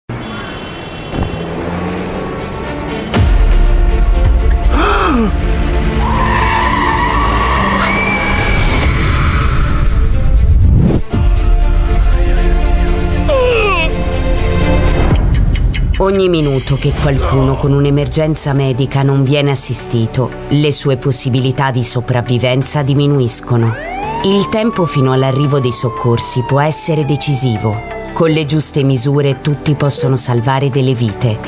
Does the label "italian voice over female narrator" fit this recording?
Yes